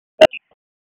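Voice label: EMS Dispatch, p25; wake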